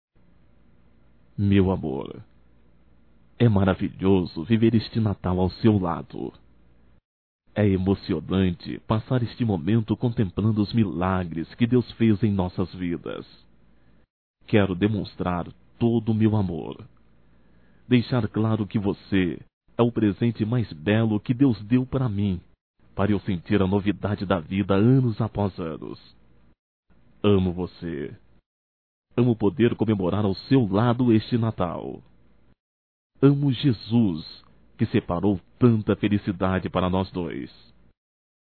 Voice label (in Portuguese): Romântico Masculino; Telemensagens 2017; Natal & Ano Novo